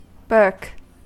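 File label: "burke", US English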